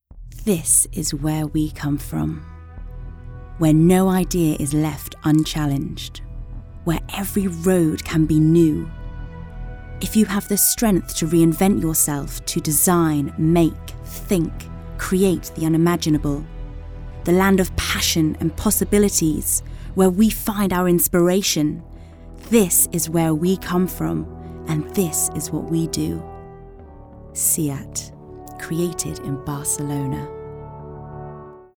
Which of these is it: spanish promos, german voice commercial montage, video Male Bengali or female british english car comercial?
female british english car comercial